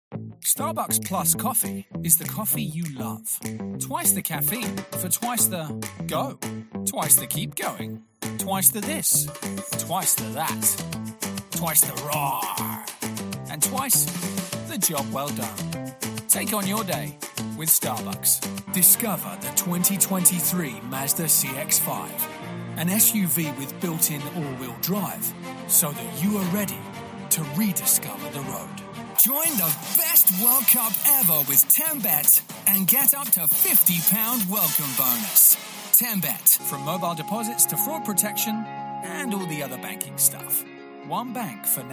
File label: english british commercial